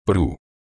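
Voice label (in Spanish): "pru", Spanish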